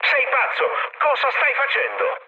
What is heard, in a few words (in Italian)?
player killed ally, mood med, speech it; War Thunder